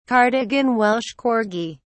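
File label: "cardigan welsh corgi", British English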